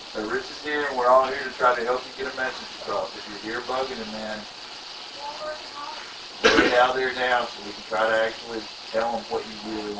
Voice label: Unexplained Inaudible Female or Childs voice; Case 029 Christian Home